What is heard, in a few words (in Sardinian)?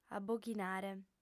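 "aboghinare", lingua sarda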